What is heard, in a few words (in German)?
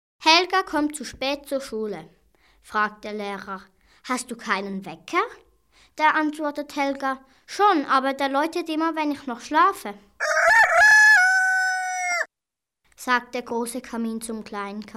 Witze normale Stimme; Witze gesammelt und vertont; ohrmampf